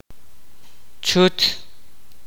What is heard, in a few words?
kaqchikel; bedbug